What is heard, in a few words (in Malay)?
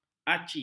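"aci", Malay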